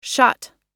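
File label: "shot", American English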